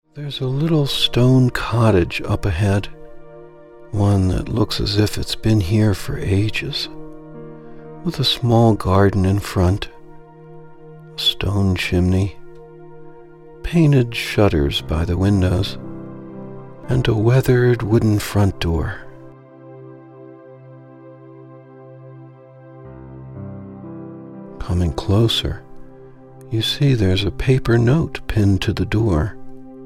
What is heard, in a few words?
PTSD Meditation